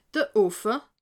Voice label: "offe", alsace

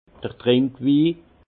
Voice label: "trinkwi", alsace; vin; vin de table

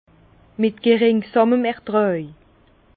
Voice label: "ertraj", Bas Rhin; vin

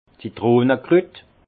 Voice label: "zitronekrut", alsace; vin; critronnelle

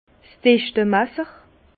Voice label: "dichtemasser", Bas Rhin; vin; densimètre

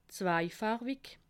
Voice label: "zweifarwig", alsace

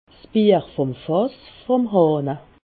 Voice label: "fass", alsace

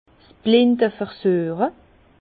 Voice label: "blinde", Bas Rhin; vin; dégustation à l'aveuglette

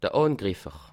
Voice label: "angriffer", alsace

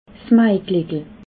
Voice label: "maigleckel", alsace

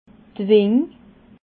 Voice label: "wing", alsace

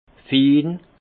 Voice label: "fin", alsace; vin

